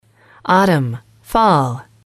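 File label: "autumn Fall", female, english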